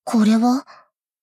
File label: shock